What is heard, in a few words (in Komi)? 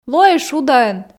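лоӧй шудаӧн, Komi, female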